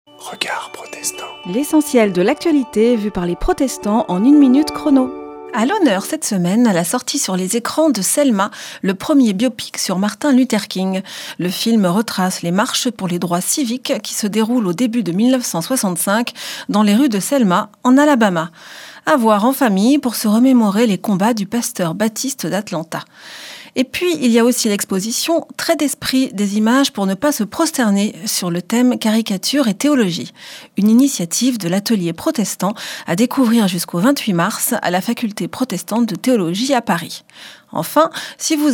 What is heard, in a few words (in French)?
Spot radio; Regards protestants